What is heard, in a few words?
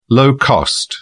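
"low-cost", British English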